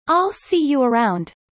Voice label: fast; studying abroad